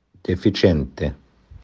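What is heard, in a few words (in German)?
"deficente", italienisch; Schwachkopf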